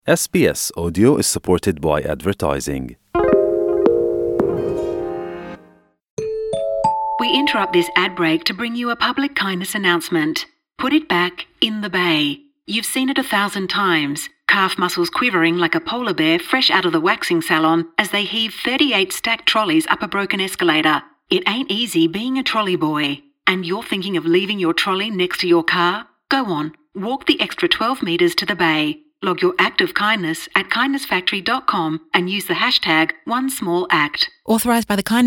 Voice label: french voix au parlement; sbs

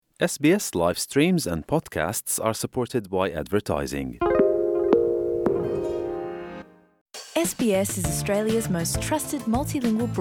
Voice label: gujarati news bulletin; sbs

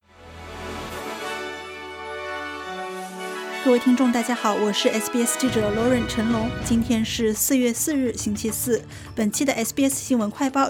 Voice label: mandarin news flash; sbs

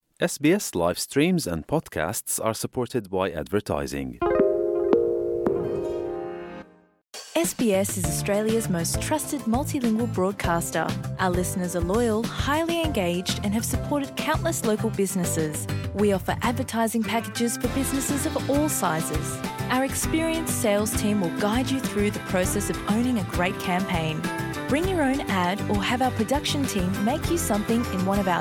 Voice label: gujarati news bulletin; sbs

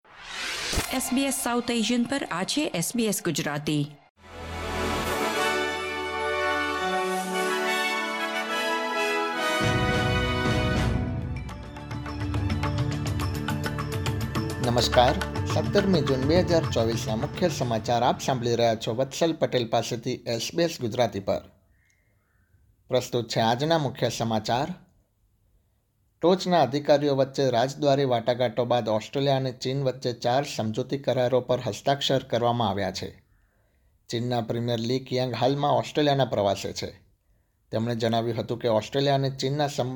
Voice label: gujarati news bulletin; sbs

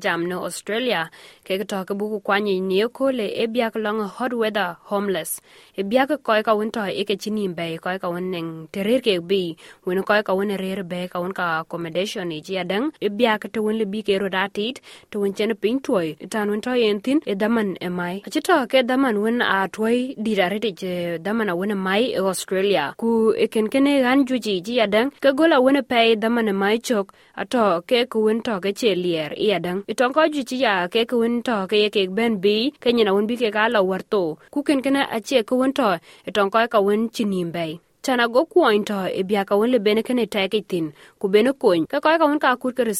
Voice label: dinka; sbs

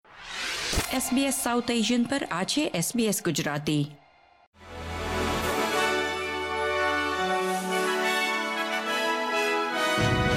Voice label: gujarati news bulletin; sbs

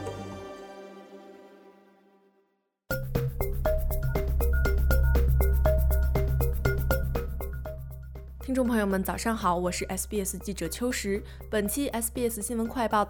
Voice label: mandarin newsflash; sbs

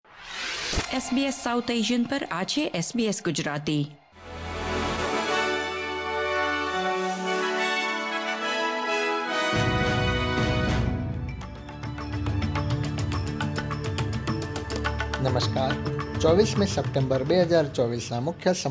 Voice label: gujarati news bulletin; sbs

